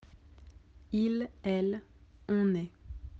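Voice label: "être", French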